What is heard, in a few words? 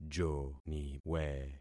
"joniwe", concat syllables; Affixing experiment